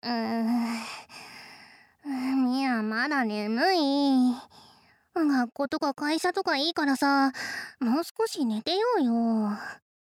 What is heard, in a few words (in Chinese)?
嗚~美愛還是好睏~。別管什麼上學上班了~再一起睡一下吧~, ASMR; 鬧鈴聲